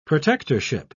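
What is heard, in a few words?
"protectorship", British English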